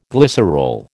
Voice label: "glycerol", American

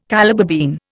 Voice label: "Calabar bean", Indian

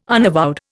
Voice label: "unavowed", Indian

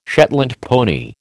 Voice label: "Shetland pony", American

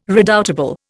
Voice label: "redoubtable", Indian

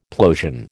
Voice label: "plosion", American

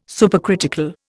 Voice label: "supercritical", Indian

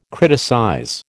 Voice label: "criticize", American

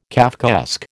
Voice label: "Kafkaesque", American

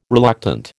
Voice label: "reluctant", American

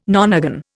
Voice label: "nonagon", Indian

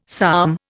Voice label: "psalm", Indian; Dictionary

